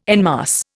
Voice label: "en masse", Indian

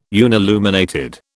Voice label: unilluminated, British

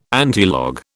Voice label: "antilog", British

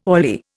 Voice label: "oily", Indian

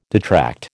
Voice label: "detract", American

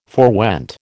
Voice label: "forewent", American

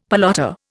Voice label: "pelota", Indian; Dictionary

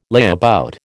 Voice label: "layabout", American

